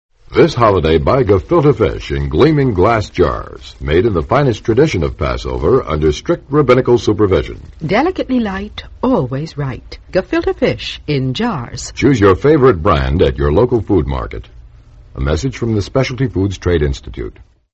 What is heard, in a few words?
yiddish radio gefilte fish commercial; the yiddish radio project